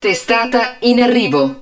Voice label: HUD INCOMING WARHEAD, Italian; XBOX; Battle Engine Aquila